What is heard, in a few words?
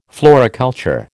"floriculture", American